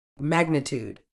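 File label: "magnitude", British English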